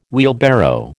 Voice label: "wheelbarrow", American